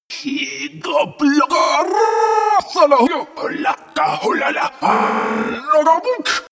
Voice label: monster glitch talk; kreaturen